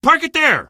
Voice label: park it, male; Source Engine; video game; coast; barn